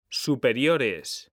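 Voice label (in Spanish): "superiores", Spanish; mekanta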